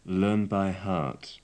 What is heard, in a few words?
"learn by heart", English